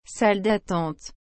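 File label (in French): "salle dattente", French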